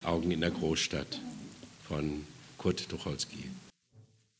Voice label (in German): ansage